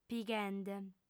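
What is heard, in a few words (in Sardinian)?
"pighende", female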